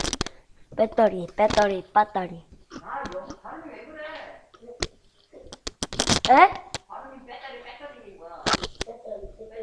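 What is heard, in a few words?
"battery", spoken word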